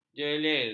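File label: "jelir", Malay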